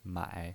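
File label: "mai", pinyin voice, Mandarin Chinese, third tone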